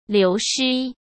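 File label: "流失", Mandarin Chinese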